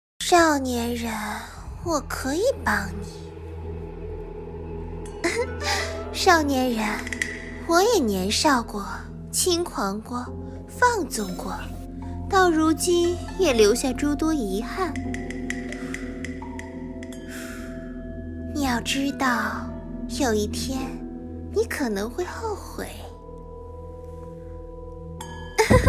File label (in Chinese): 萝御音; 动漫配音